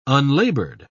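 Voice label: "unlaboured", English, British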